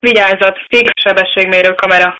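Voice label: warning, Hungarian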